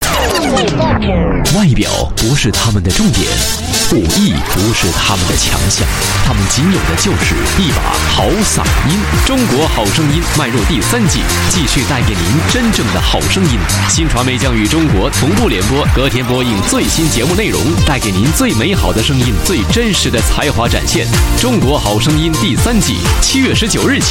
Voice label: Mandarin; The Voice Of China